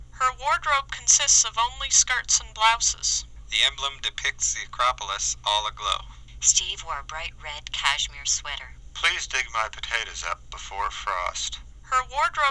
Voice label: Mic Speech; Microphone Signal